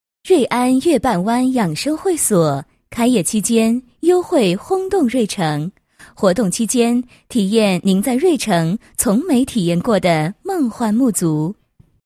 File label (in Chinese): 女 促销; 瑞安月畔湾养生会所开业优惠